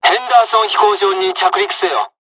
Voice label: landing, dialogs japanese; War Thunder